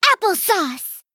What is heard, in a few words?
Female Gnome Attack